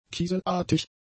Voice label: "kieselartig", German; adjectives